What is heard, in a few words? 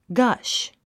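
"gush", English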